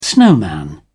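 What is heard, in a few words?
"snowman", British English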